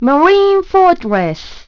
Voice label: marine fortress, announce voice; Nintendo; July 2020 Gigaleak

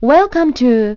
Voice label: "welcome to", announce voice; Nintendo; July 2020 Gigaleak

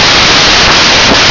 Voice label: sandy beach, announce voice; Nintendo; July 2020 Gigaleak

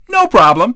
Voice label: dj miss, DJ VOICE; Nintendo; July 2020 Gigaleak

